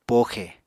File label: "poxe", Apatani